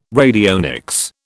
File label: "radionics", British